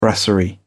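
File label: "brasserie", British English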